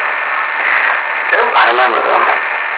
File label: talking over a voice